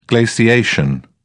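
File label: "glaciation", English, British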